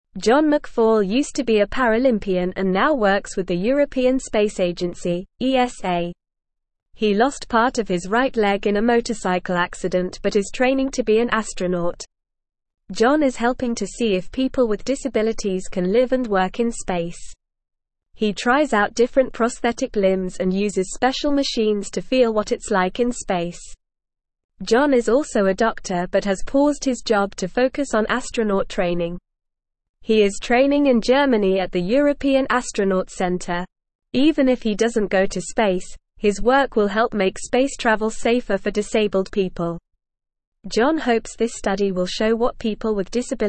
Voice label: English NORMAL Reading; John McFall From Sports Champion to Space Explore